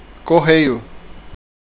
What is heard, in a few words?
post, portuguese; word of day